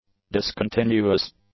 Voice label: "discontinuous", English; adjectives